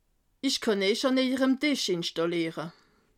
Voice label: "tisch", alsace

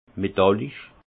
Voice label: "metallisch", alsace; vin; métallique

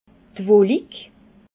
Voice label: "wolik", alsace

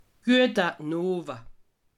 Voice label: "owa", alsace

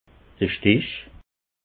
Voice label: "stich", alsace

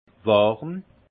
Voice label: "warm", alsace; vin; chaud

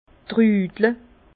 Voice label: "trudle", Bas Rhin; vin

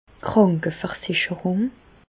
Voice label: "krankeversicherung", Alsace, Bas Rhin; medecine; assurance maladie

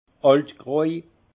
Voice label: "altgroj", alsace; vin; vieux rosé

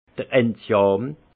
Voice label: "enzian", alsace; vin; gentiane

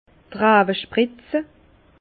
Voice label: "rawespritze", Bas Rhin; vin; sulfater les vignes

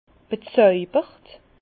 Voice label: "bezojbert", Bas Rhin; vin; séduit être

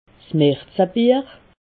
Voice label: "marzebier", alsace

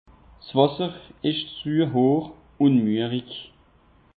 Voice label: "wasserisch", alsace; peche

